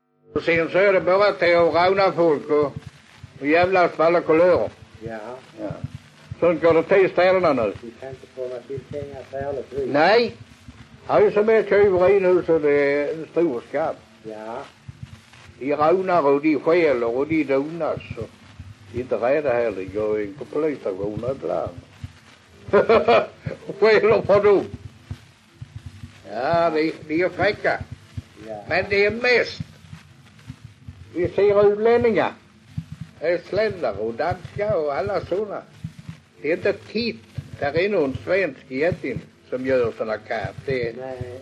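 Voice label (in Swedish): Allerum Skåne, dialekter svenska sagor; Folkminnen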